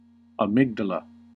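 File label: "amygdala", US English; pronunciations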